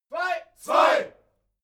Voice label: military shout german two

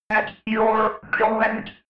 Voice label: robot voice at your command fx

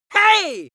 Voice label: angry "hey" male

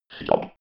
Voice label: robot voice stop

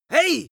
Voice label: angry warning hey male

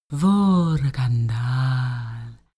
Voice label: female talk elfish

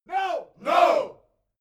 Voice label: military shout english "no"

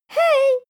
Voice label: girl "hey"

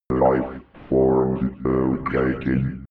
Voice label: robot voice lifeforms located fx

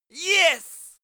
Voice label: male cheer victory yes; schreie; menschen

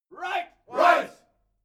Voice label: military shout english "right"

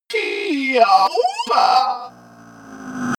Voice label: monster glitch talk; kreaturen

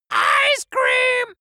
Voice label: dwarf scream ice cream german

